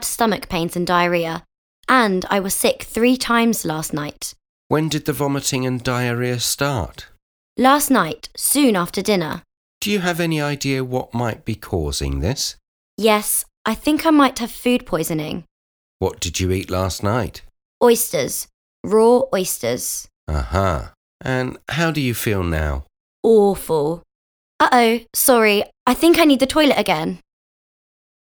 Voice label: Conversation; Bedside Manner Beginner